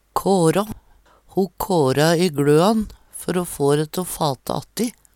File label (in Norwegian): kråtå, Numedalsmål; Verb; Veggli